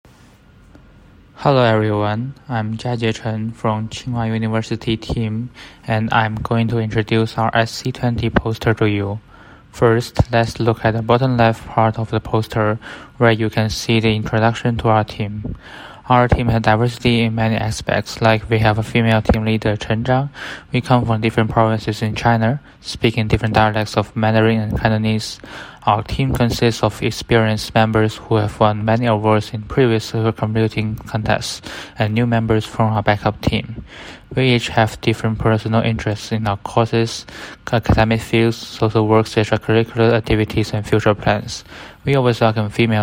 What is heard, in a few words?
Voiceover; ClusterComp 2020